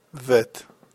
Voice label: "vet", hebrew